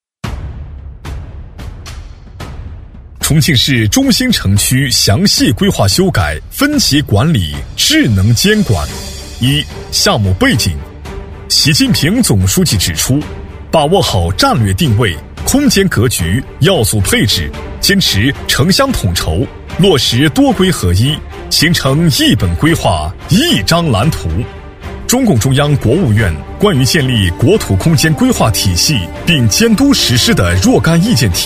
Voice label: 男 专题配音 大气有力

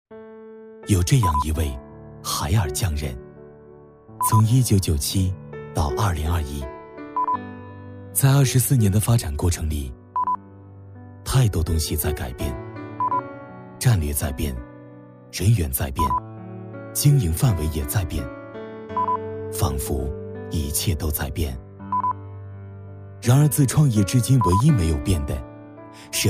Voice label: 男 走心宣传 年轻走心讲述; 海尔; 走心旁白

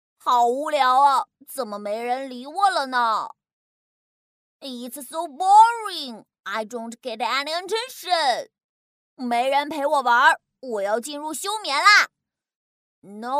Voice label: 女 男童 中英文游戏

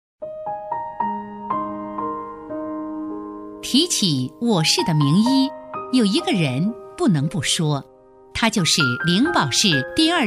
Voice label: 女, 人物专题, 医学人物, 感动; 正气稳重; 成熟知性

